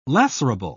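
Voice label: "lacerable", British English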